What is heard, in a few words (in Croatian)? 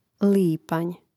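"lipanj", Croatian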